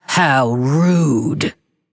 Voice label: Female Lich MIRROR GREETINGS